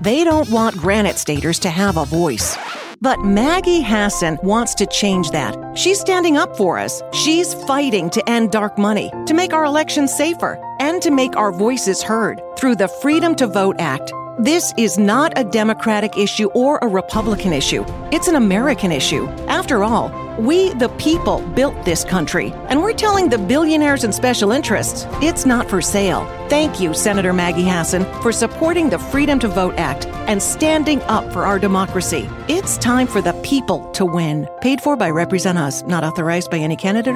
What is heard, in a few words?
Political Radio; 2022 Reed Award Radio IE winner